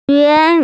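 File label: "yun2", Mandarin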